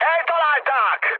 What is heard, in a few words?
aircraft destroyed, speech hun; War Thunder